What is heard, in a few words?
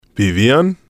"vivian", spanish